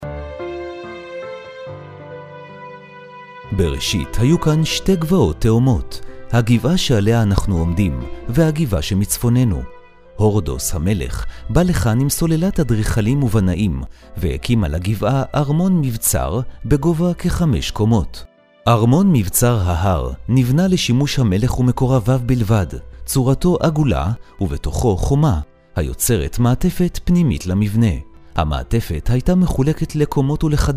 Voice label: hebrew mix